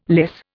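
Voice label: "lyse", Indian English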